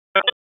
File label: Police Northeast District Tac 1, p25